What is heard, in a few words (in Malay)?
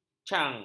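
"cang", Malay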